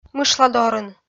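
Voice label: "мышладорын", Komi-Zyrian, female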